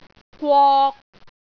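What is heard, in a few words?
"gwok3", Cantonese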